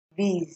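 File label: "biz", Nigerian Pidgin, female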